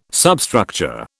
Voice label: "substructure", British

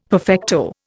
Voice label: "perfecto", Indian; Dictionary

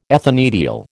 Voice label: "ethanediol", American

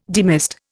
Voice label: "demist", Indian

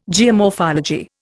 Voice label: "geomorphology", Indian

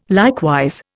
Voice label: "likewise", Indian

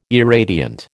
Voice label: "irradiant", American

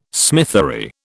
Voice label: "smithery", British

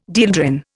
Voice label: "dieldrin", Indian

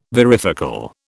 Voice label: "varifocal", British

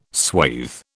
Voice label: "swathe", British

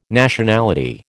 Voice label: "Nationality", American

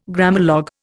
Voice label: "Grammalogue", Indian

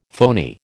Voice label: "phoney", American

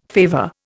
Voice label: "favour", Indian

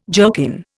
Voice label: "jerkin", Indian

